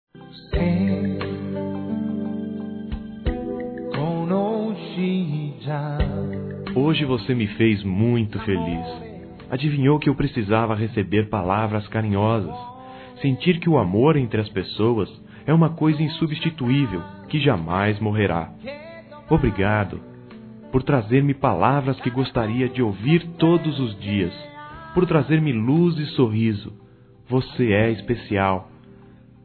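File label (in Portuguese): agradecimento amizade, masculino; Telemensagens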